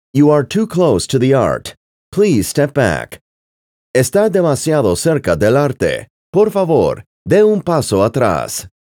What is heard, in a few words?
Bilingual Male You are too close; museum alarm options